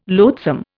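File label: "loathsome", Indian English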